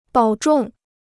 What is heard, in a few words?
"保重", Chinese